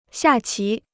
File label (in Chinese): "下棋", Chinese